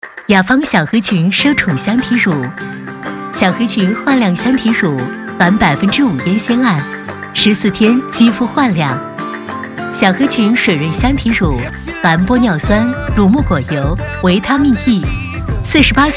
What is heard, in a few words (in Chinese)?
女 化妆品; 小黑裙